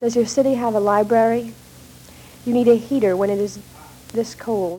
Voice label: Reading samples of 22 year old white female Wakefield Michigan; american english dialect